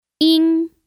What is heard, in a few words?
"ying1", Chinese